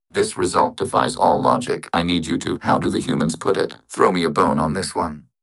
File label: upset; fertig classic games